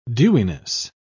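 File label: "dewiness", British English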